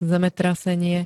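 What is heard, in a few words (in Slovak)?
"zemetrasenie", Slovak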